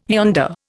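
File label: "yonder", Indian English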